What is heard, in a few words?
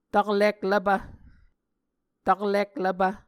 "taklik lvpv", Muscogee